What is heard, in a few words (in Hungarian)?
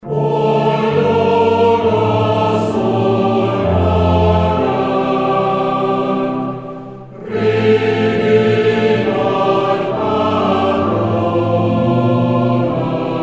SPOT PUNKOSD szombat miseig; Punkosdi szpotok; Spot szombati miseig